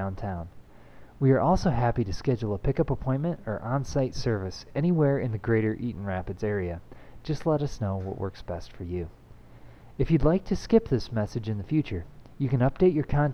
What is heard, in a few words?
office closed greeting; eaton rapids